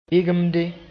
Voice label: "bɩgemde", moore; ripe

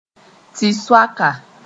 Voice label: "tɩ-soaka", Moore; charm

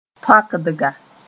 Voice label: "tõakdga", Mooré; join

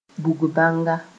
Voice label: "bug-bãnga", moore

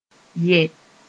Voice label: "yɛ", moore

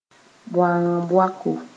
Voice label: "bõang-baoko", Mooré

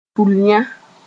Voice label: "tuliyã", moore

